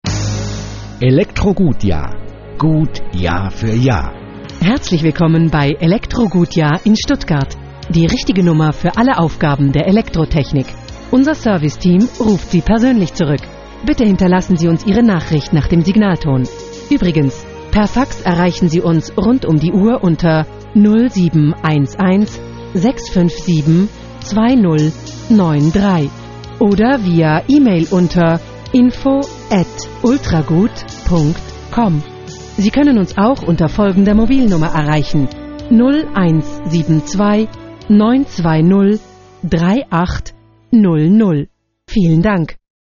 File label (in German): nachtansage